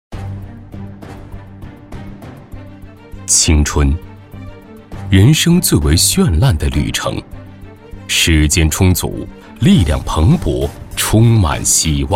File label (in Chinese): 男, 银行宣传样音; 中国工商银行